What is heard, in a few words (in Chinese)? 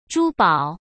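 "珠宝" Mandarin Chinese; hsk; chinese-flashcards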